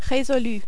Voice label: "resolu", French